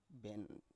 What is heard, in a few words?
"ben" Biak